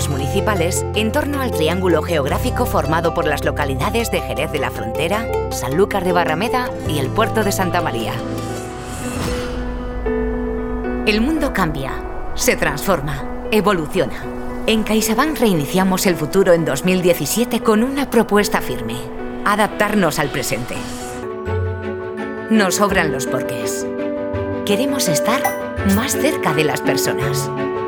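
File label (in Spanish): corporativo España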